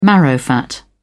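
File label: "marrowfat pea", British English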